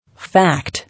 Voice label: "Fact", English